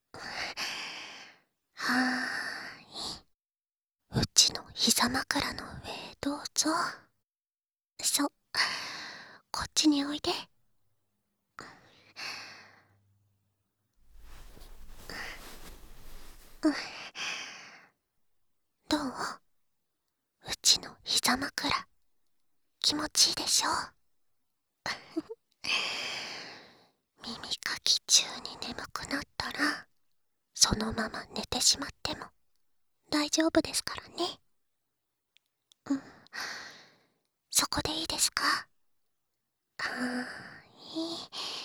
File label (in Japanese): ゆったり耳かき（効果音あり）, ASMR